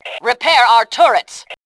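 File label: "repair turrets", Fem2; Tribes2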